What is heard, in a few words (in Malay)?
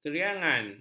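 "keriangan", Malay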